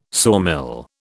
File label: "sawmill", British